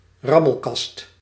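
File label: "rammelkast", Dutch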